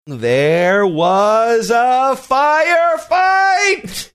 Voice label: saying there was a firefight funny drawn out

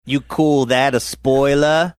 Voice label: you call that spoiler australian

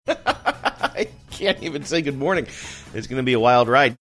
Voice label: laughing saying i cant even say good morning

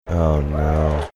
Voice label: quietly saying oh no

